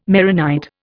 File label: "Maronite", Indian